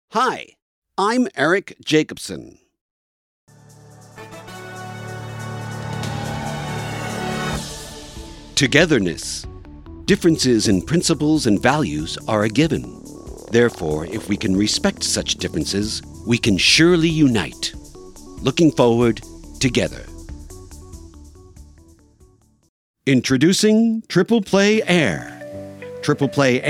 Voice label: Narration; Narration Demo